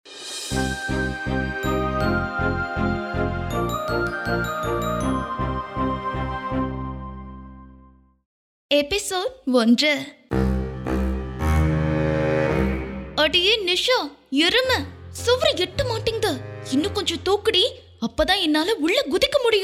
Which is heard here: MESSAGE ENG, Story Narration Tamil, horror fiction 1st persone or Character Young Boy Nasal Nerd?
Story Narration Tamil